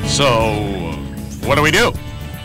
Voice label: so what do we do male